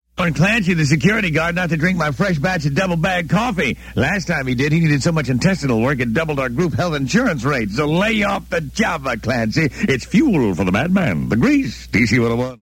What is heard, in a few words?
Commercial